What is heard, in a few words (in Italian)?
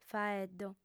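"faeddo", lingua sarda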